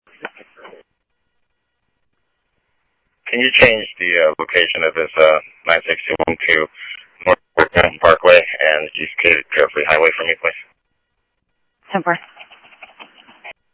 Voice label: Phoenix PD, scanner; ThompsonPeakHigh